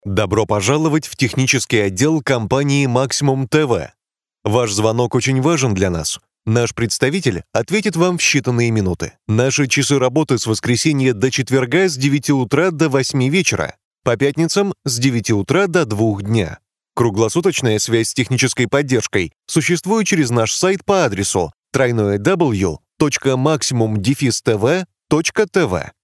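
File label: Russian, interactive voice response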